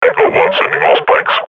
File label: firing, combine grunt; Half Life Model Pack